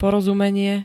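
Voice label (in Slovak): "porozumenie", slovenské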